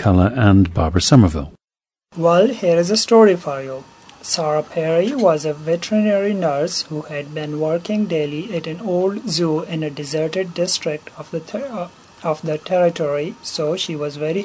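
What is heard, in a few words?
pakistan, dialects of English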